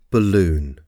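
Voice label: "balloon", English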